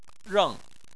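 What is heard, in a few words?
"reng", Chinese, tone 4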